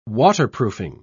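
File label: "waterproofing", British English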